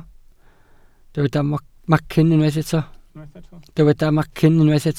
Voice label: "macyn", welsh